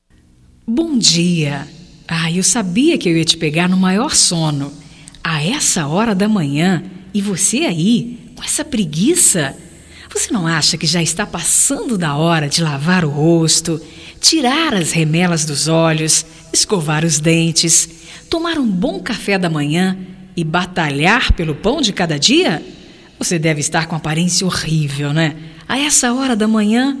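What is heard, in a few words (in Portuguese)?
BOM DIA GOZACAO, female, Telemensagens, Só Voz; Telemensagens 2017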